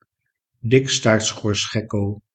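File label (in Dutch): "dikstaartschorsgekko", Dutch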